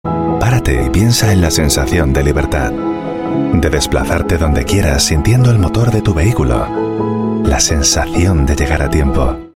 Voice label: European Spanish Commercial